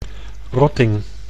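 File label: "rotting", Dutch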